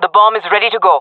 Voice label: bomb planted, fem; player vo jungle fem